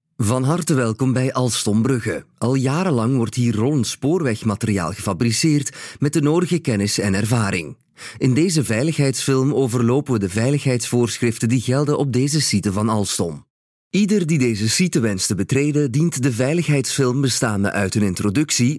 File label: Flemish voiceover e-learning